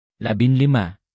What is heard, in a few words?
native, tagalog numbers